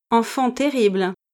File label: "enfant terrible", French